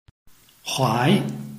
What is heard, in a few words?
"huai2", Chinese